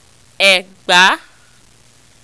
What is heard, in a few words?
"egba", Yoruba